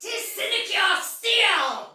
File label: See Ally Hit Soldier Female, Battle; Dialog; Sinecure